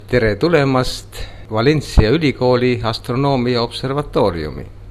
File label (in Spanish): estonio